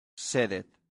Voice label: "sedet", latin; santillana

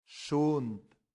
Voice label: "sunt", latin; santillana

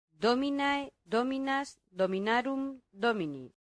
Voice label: "dominae", latin; santillana

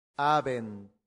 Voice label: "habent", latin; santillana

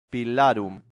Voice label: "pilarum", latin; santillana

